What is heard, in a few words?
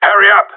hurry, radio; GSG9